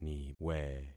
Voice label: "niwe", concat syllables; Affixing experiment

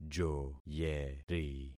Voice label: "joyeri", concat syllables; Affixing experiment

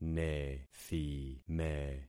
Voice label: "nethime", concat syllables; Affixing experiment

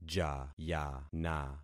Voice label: "jayana", concat syllables; Affixing experiment

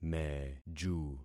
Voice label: "meju", concat syllables; Affixing experiment

